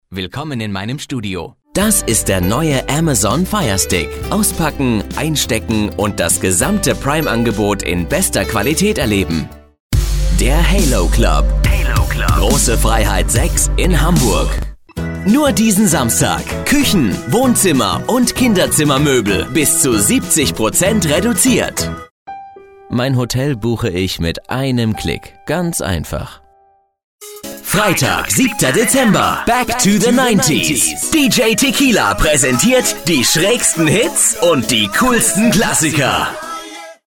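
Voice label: German male; lektoring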